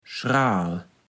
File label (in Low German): "schraal", Platt